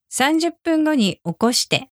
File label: alarm Wake me in 30 Minutes, japanese